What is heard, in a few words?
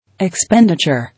"Expenditure", English